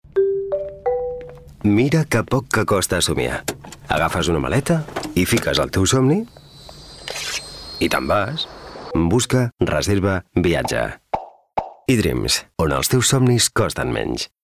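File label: male Catalan travel commercial; ideasonora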